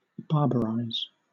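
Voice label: "barbarize", British English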